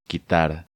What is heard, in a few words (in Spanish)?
"Quitar", Spanish